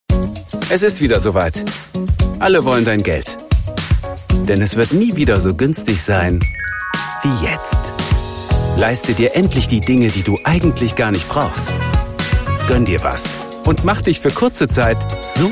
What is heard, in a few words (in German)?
Werbung